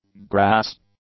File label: "grass", English; nouns